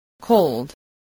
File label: "cold", voice